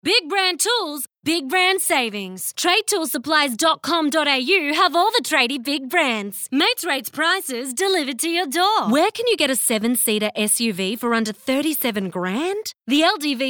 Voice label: Hard Sell